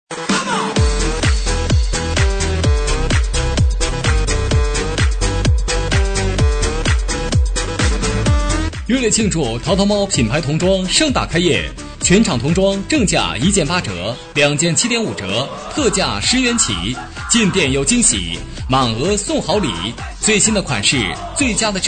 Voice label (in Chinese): 男 童装店开业; 实惠年轻